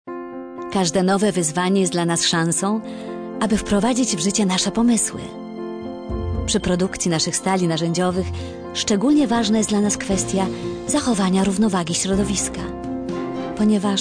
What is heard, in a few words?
polish promos